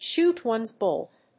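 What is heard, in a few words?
"shoot ones bolt", English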